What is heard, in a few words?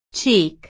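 "cheek", English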